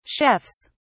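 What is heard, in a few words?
"chefs", British English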